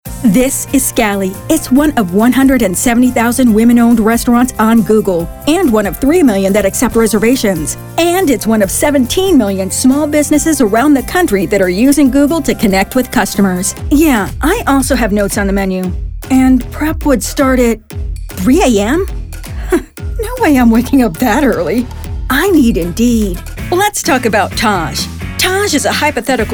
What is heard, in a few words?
vo commercial